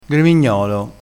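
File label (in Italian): "gremignolo", dialettale crespinese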